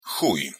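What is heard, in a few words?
"chuj", Polish; polskij yazyk; mat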